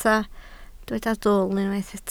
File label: "dwl", Welsh